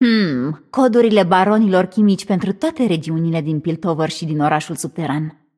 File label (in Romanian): Room2 VO Hint Trigger, vo, ro-ro